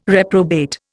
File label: "reprobate", Indian